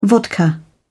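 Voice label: "vodka", British English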